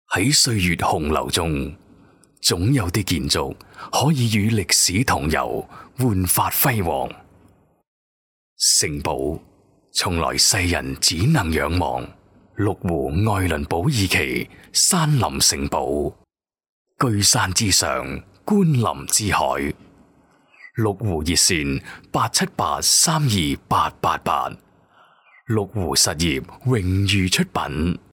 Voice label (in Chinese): 男粤 广告 地产 成熟; 粤语; 绿湖爱伦堡2期